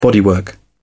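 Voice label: "bodywork", British English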